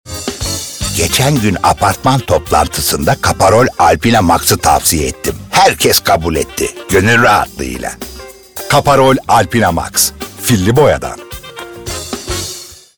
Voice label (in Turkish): reklam; filli boya